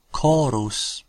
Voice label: "chorus", latin